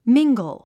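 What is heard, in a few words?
"mingle", English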